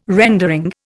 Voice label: "rendering", Indian